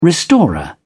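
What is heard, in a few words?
"restorer", English, British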